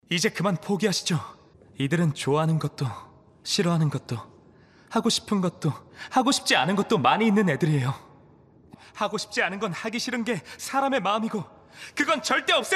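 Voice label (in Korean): 여성 (Female) 광고 - ARS 캐릭터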